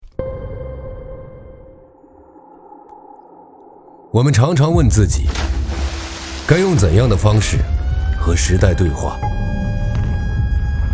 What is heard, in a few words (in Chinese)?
男 宣传片 质感 高端 自然